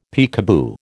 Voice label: "peekaboo", American